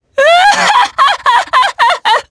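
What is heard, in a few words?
Vox Happy, Japanese; voices; heroes; Ezekiel; kingsraid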